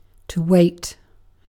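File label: "wait", British English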